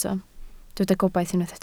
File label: "gobaith", Welsh